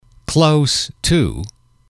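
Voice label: "close to", English, male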